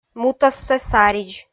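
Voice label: "мутасса саридз", Komi, female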